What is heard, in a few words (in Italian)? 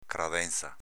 "cradenza", dialetto veneto; cradènza s.f. comò